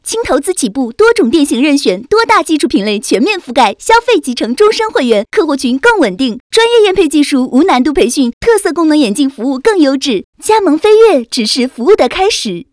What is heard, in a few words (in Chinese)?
加盟广告